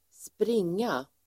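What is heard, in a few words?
"springa", Swedish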